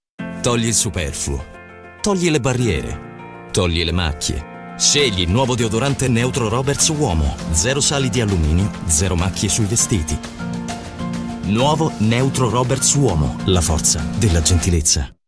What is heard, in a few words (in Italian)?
neutro uomo spot